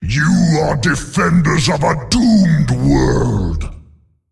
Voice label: Male Dreadlord Play

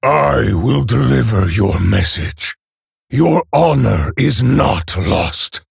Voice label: Male Orc Ogre

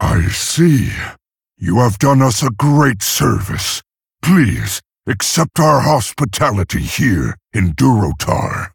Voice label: Male Orc Victory; Thrall

